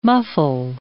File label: "muffle", English